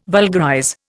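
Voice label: "vulgarize", Indian English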